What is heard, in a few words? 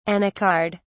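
"anacard", British English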